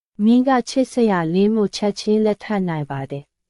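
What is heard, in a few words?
Myanmar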